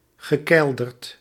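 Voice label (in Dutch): "gekeilderd", Dutch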